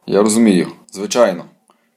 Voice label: dialogue, Ukrainian